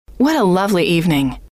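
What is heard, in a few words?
what A Lovely Evening, female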